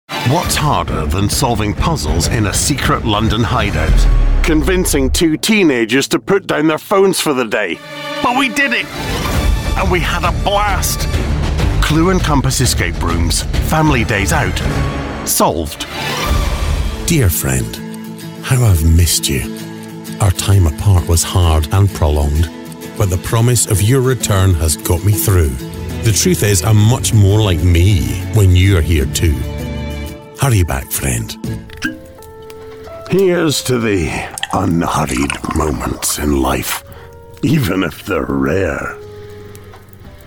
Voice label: COMMERCIAL Reel, male voices

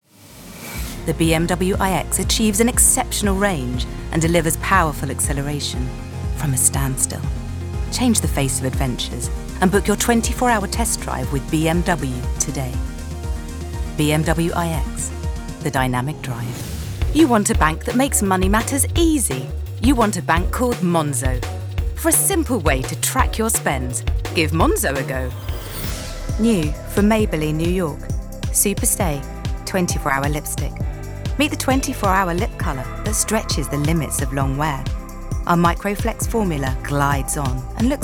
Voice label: english commercial, female voices

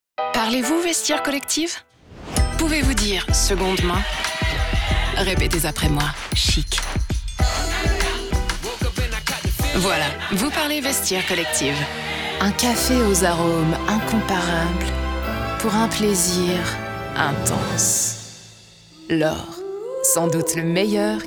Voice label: french commercial, female voices

